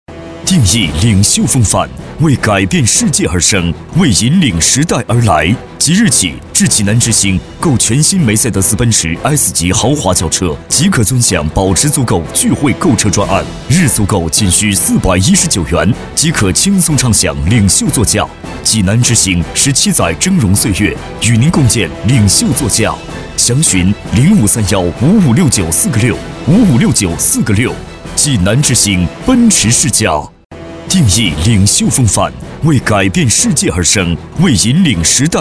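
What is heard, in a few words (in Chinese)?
国语 男声 广告 大气浑厚磁性; 科技感; 积极向上; 奔驰-汽车广告-激情大气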